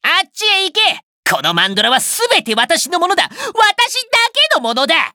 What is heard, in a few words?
victory jp